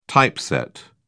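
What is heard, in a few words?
"typeset", English, British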